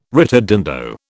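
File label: "ritardando", British English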